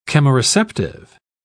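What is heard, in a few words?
"chemoreceptive", British English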